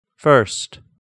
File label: "first", English